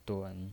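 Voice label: "dun" Mandarin Chinese, third tone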